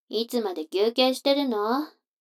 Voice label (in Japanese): 女の子 台詞, ASMR